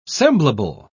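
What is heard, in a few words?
"semblable", British English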